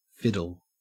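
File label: "fiddle", Australian English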